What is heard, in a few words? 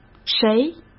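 "谁", Mandarin Chinese; chinese-flashcards; hsk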